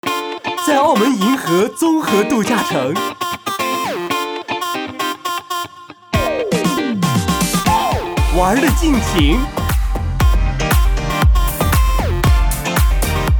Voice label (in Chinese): 國語男聲 旅遊